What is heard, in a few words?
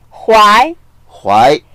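"huai2" Mandarin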